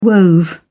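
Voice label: "wove", British English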